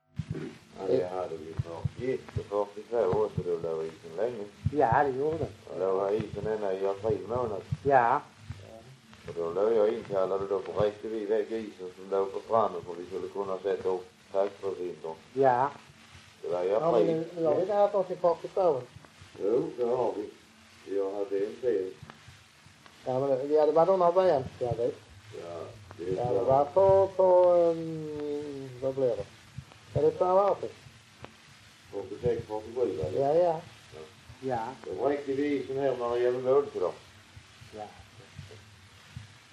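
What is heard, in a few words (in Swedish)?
Raus Skåne, Svenska sagor dialekter; Folkminnen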